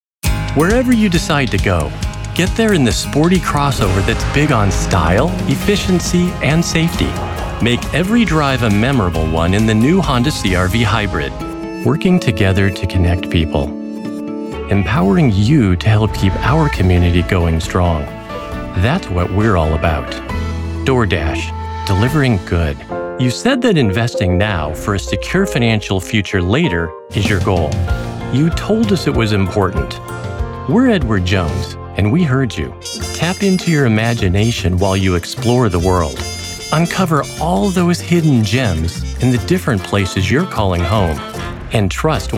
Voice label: Commercials